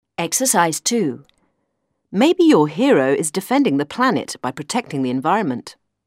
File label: oral english